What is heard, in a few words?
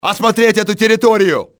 investigate area, russian soldier; XBOX